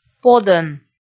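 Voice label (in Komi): "подӧн", Komi, female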